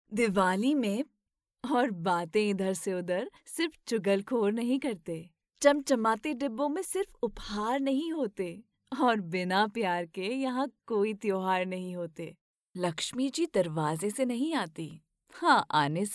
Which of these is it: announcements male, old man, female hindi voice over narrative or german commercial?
female hindi voice over narrative